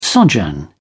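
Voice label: "sojourn", English, British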